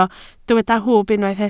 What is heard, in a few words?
"hwb", Welsh